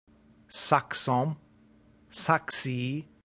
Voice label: "saxum", Latin